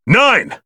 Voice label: Assist Call (ENG); BBTAG